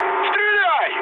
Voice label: attack position, mood med, speech ru; War Thunder

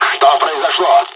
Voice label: dialog, dialogs russian; us midway; War Thunder

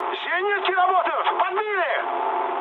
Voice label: damaged aa, mood med, speech ru; War Thunder

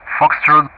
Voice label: "Foxtrot", ENG; Ka-50; DCS

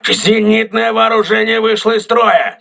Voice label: aa turret damaged, crew ships russian

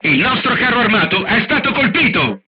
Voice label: player tank hit, crew tanks italian; War Thunder

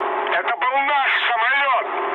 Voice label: player killed ally, mood low, speech ru; War Thunder

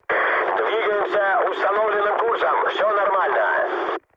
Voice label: chat, speech ru

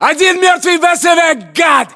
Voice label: inform killfirm infantry, battlechatter ru

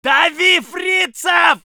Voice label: voice sov infantry

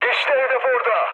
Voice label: near waypoint, mood high, speech tur; War Thunder

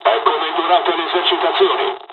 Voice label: japanese first wave, dialogs italian; War Thunder

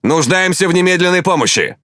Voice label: Ru Speech Sos; World Of Warships; Quick Commands

